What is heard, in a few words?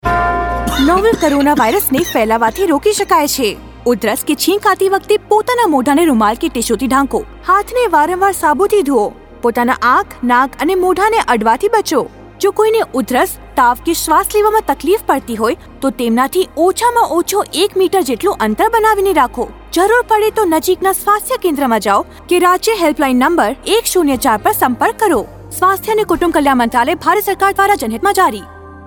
Cough Radio Gujarati, Radio spot; Radio spot on key behaviours COVID-19 Gujrat Gujrati; SARS-CoV-2; UNICEF IEC eWarehouse